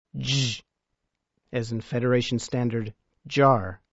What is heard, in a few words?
Klingon sounds j, Letter j, as in jar